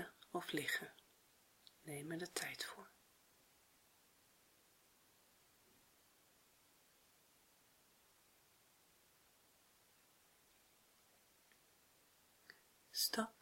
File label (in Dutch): geleide meditatie oceaan; Nederlands